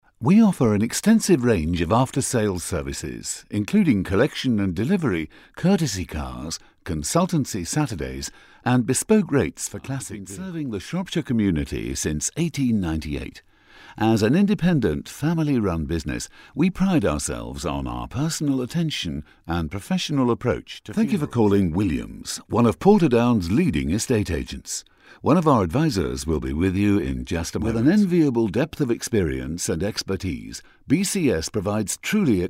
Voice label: Phone message